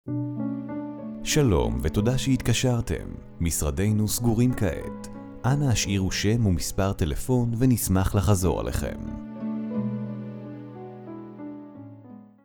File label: IVR